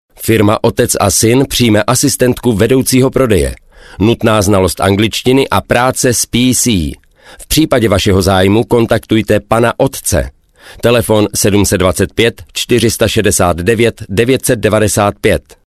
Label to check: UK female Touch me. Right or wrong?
Wrong